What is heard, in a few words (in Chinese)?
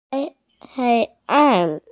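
"hei1an4", Mandarin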